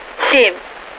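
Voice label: Russian speech, Mi-8MT RI65; DCS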